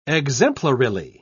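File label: "exemplarily", British English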